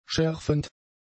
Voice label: "schärfend", german; adjectives